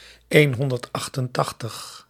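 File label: "eenhonderdachtentachtig", Dutch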